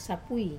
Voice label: "sapui" lemongrass, Wamesa